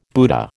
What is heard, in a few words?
"Buddha", American